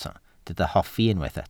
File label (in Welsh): "hoffi", Welsh